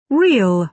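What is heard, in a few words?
"real", British English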